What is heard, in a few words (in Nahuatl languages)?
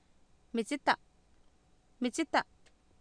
"mitsita", Oapan, female; Oapan minimal pairs 2000